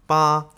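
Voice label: "Ba", eight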